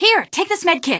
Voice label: health, female; npc; Garry's Mod; hl2